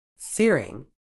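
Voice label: "searing", British English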